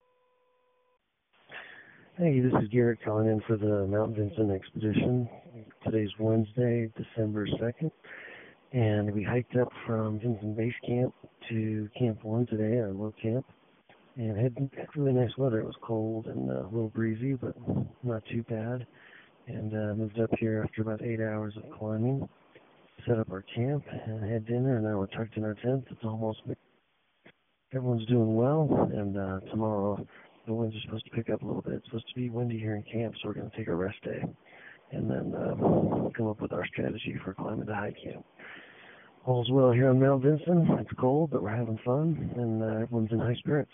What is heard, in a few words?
voicemail